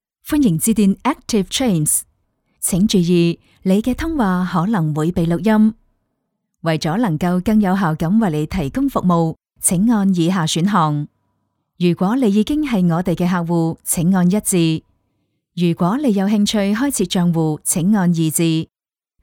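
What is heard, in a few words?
female, Cantonese, IVR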